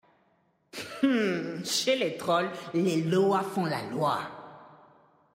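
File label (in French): French Female Blague; Troll Zandalari; Loa Font La Loi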